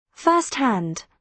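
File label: "first hand", British English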